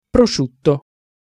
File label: "prosciutto", Italian Pronunciations